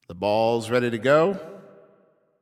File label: Ball Placed in Start Ring, Announcer